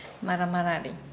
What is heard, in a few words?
"becomesmooth", Nukuoro